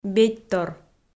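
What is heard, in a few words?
"бедьтор", Komi, female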